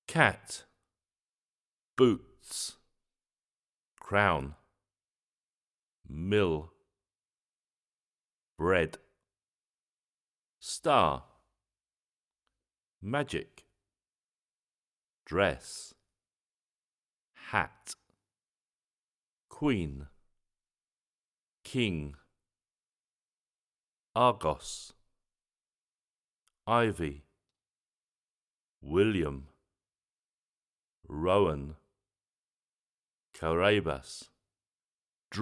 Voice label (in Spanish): VOCABULARIO INFANTIL